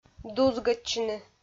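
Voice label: "дузгӧдчыны", Komi, female; kpv audio dict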